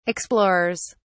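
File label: "explorers", English, British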